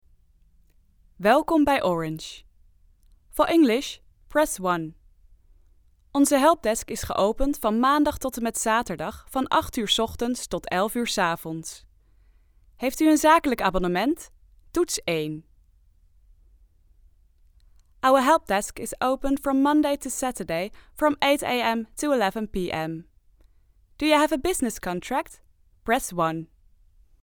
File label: Phone system